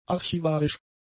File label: "archivarisch", German; adjectives